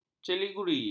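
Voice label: "celiguri", Malay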